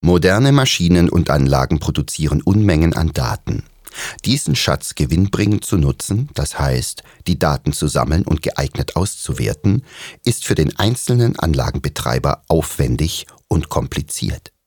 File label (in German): Werbung